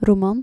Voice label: "roman", Dutch